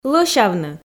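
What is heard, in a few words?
"лӧсявны", Komi, female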